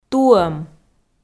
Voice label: "туӧм", Komi

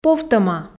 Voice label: "повтӧма", Komi

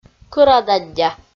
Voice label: "кӧра-даддя" Komi

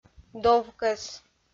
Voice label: "довкӧс", Komi

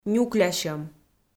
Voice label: "нюклясьӧм", Komi

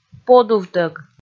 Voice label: "подувтӧг", Komi

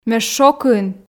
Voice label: ме шокын, f

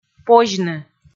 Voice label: "позьны", Komi

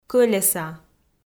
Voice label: "кӧлеса" Komi

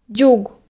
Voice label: "дзуг", Komi

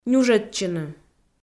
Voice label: "нюжӧдчыны", Komi

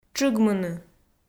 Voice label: "тшыгмыны", Komi

